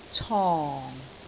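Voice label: "cong4", Cantonese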